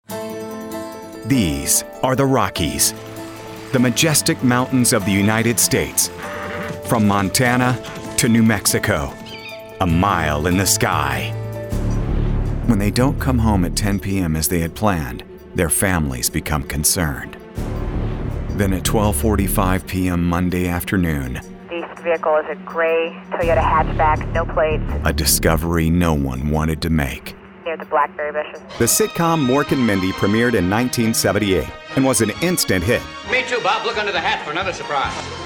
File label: documentary and in show narration